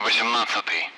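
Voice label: Speech, Russian, 8th; Allied Flight; DCS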